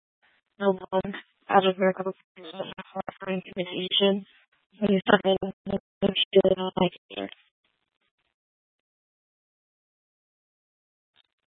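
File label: Tempe Police Department, scanner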